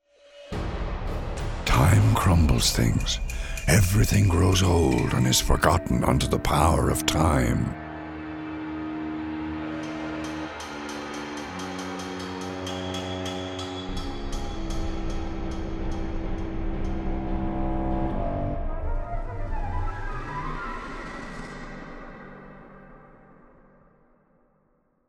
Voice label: Speech, English; Civ5; Wonder; Stonehenge